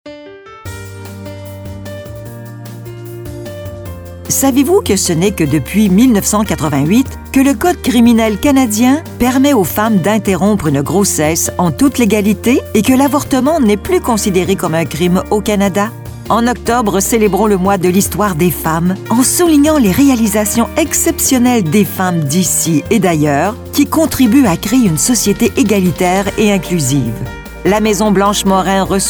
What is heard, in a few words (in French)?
Voix, musique